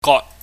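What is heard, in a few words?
"got", Cantonese, mid level tone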